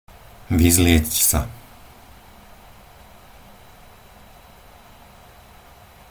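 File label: "vyzliecť sa", Slovak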